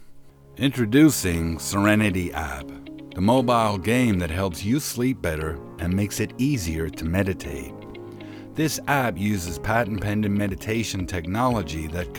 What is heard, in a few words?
U.S Commercial Explainer Video; Serenity App